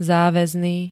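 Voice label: "záväzný", Slovak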